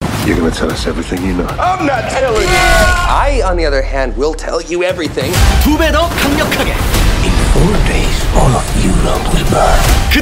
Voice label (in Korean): 영화예고 경쾌함; 샘플; 킬러의보디가드2